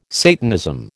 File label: "Satanism", American